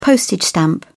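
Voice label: "postage stamp", British English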